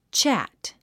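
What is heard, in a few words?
"chat", English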